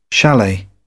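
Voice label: "chalet", British English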